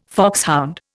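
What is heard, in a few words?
"foxhound", Indian English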